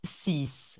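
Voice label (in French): "six", French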